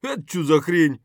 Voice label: mutter; Escape from Tarkov; scav5